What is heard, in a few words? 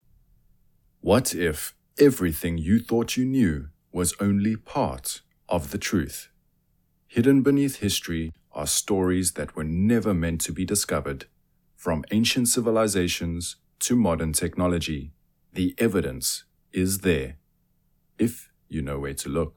Youtube Documentary